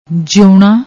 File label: "jeeoona", Punjabi